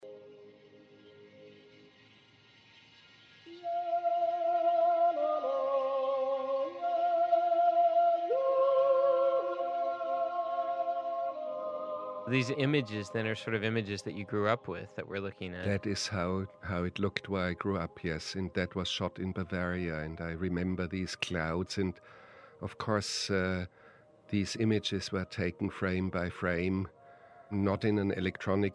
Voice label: Commentary; Herzog; Heart of Glass